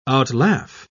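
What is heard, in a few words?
"outlaugh", British English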